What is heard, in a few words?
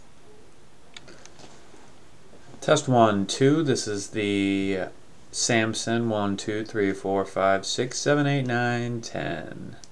samson g track